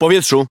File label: Speech Pilots Bomber Airborne, PL; World Of Warships